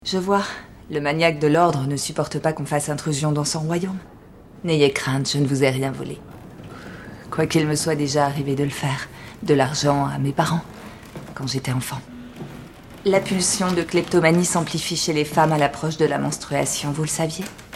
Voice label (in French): doublage sensuelle convaincante; Le Troisieme Oeil; URSULA STRAUSS